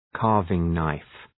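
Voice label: "carving knife", English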